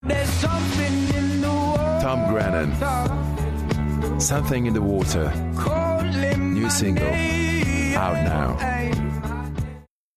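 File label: Portuguese English; Portuguese, Male, 20s-30s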